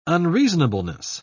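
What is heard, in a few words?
"unreasonableness", British English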